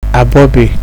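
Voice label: "abobi", Nigerian Pidgin, male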